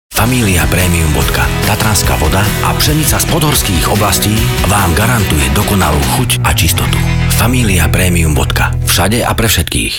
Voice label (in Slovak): Premium Vodka tv commercial Slovakia Všade a pre všetkých; FAMILIA